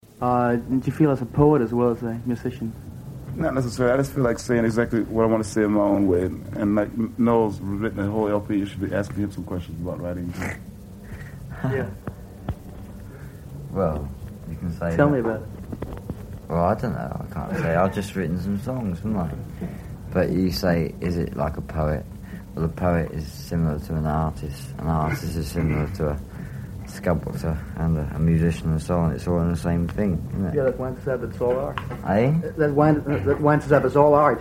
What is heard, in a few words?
Danish Radio Broadcast; 1969-01-10 - Rabalder I Teateret, Copenhagen